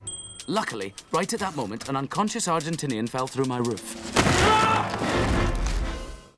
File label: argentinian; moulinrouge